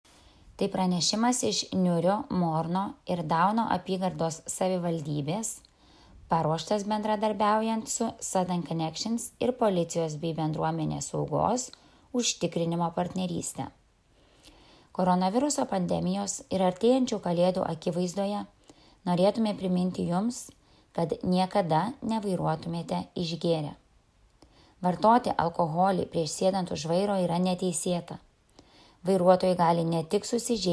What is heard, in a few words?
Alcohol Awareness Lithuanian